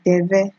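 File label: "deve", Nigerian Pidgin, female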